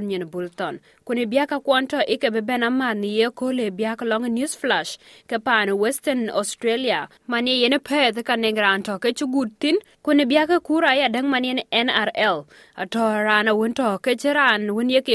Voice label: dinka; SBS Dinka News Flash 05/03/2024